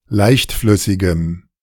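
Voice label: "leichtflüssigem", German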